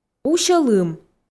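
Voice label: "усьӧ лым", Komi, female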